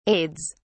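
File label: "aides", British English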